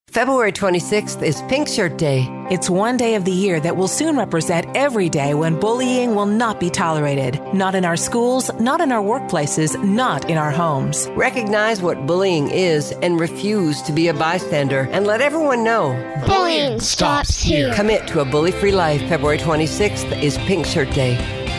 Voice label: Radio Ad; Pink Shirt Day